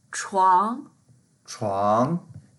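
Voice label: "chuang2", Mandarin Chinese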